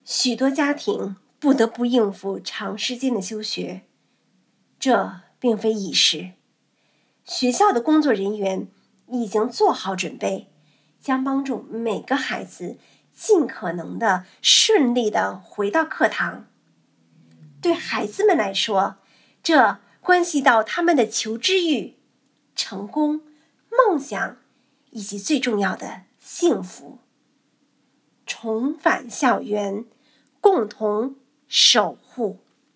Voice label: Message Mandarin

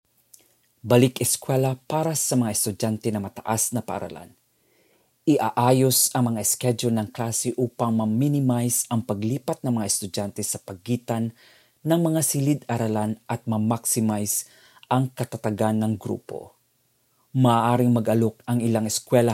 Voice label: Tagalog

